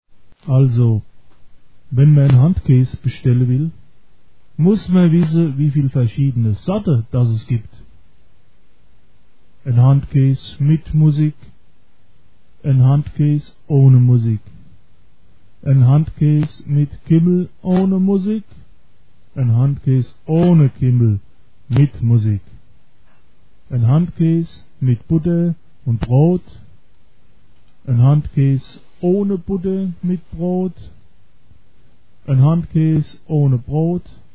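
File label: explains, in pure Frankfurt dialect, but does NOT sing; Handkäs (Handcheese) especially "mit Musik" (with music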